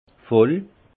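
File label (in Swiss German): "voll", alsace Haut-Rhin; vin; ivre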